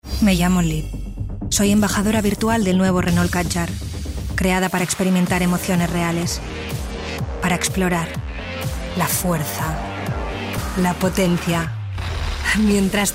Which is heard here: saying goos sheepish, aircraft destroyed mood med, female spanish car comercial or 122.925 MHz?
female spanish car comercial